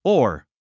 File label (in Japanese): 母音ɔrの発音